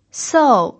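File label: "sou", Mandarin Chinese pinyin, fourth tone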